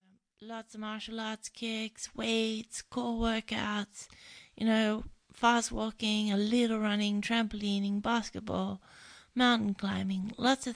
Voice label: AUDIOBOOK